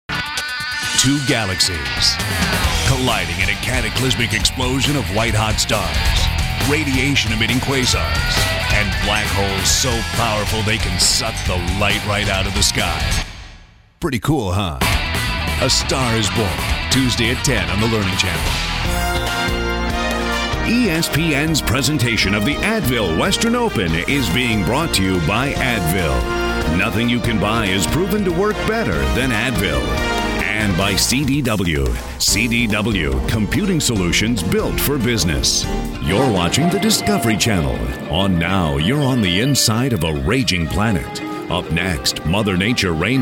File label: promo, men